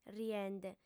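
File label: "riende", female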